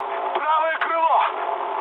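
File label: right wing, mood med, Russian; War Thunder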